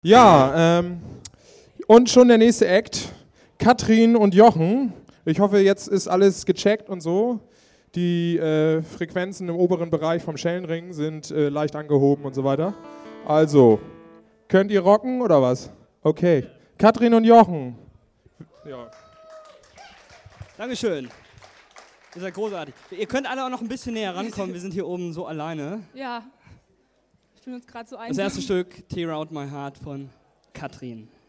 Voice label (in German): Ansage